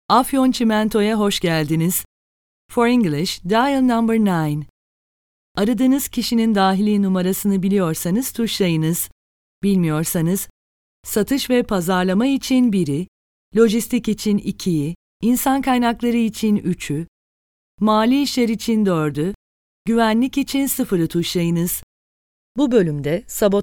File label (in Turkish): kadin sesler, turkce seslendirme